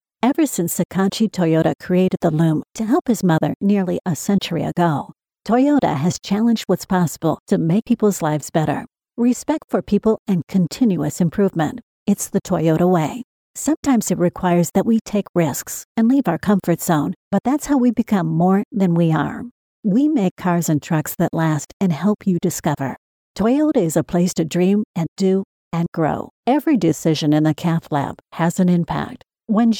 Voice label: Corporate

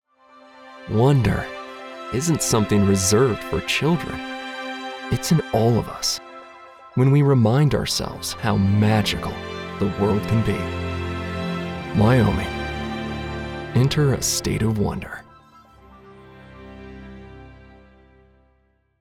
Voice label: Commercial - Tourism Travel; Wyoming Spot

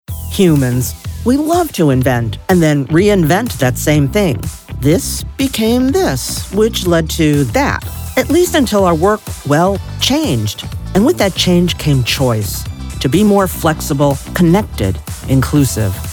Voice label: corporate narration

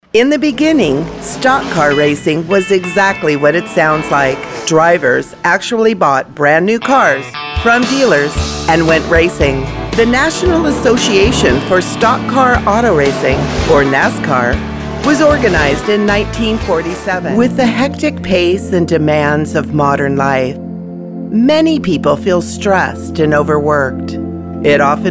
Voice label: NARRATION

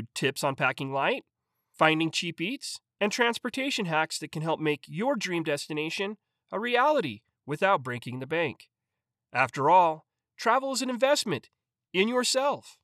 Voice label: Elearning Frugal

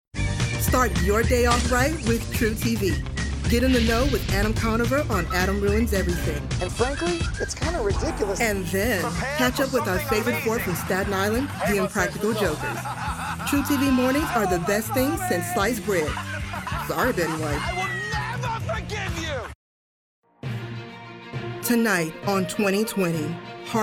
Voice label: Network Promo

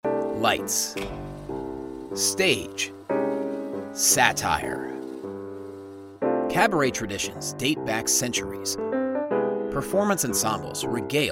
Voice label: Narration VO

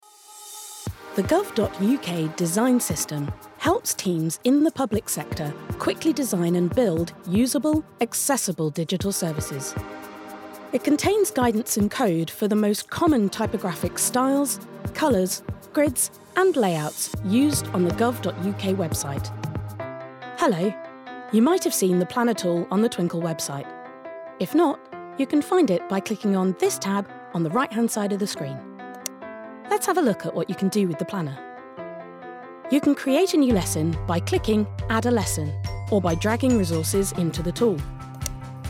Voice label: E Learning

